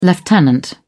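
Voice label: "lieutenant", British English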